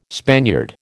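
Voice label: "Spaniard", American English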